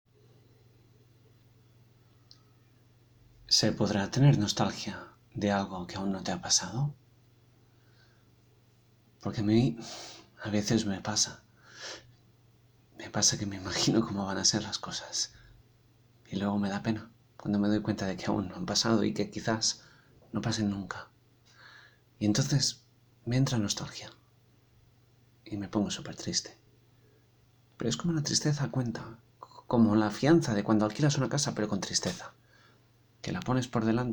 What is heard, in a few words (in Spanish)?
Muestra drama